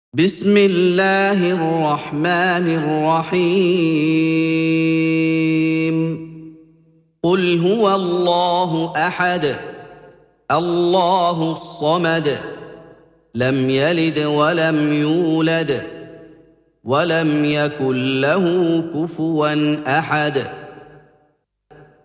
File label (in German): die aufrichtige glaube arab